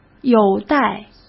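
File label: "有待", Mandarin Chinese; hsk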